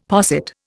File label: "posset", Indian; Dictionary